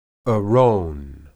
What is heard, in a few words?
"eron", Hebrew